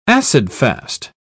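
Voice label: "acid-fast", British English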